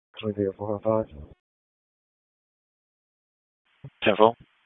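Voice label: Police Southeast District Dispatch; Raleigh; wake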